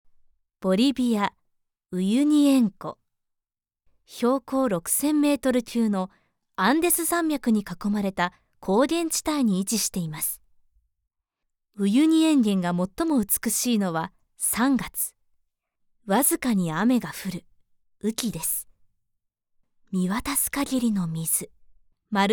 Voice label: japanese commercial